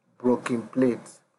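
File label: "brokin plate", Nigerian Pidgin, male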